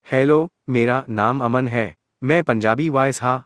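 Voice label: Punjabi, India; iOS Sounds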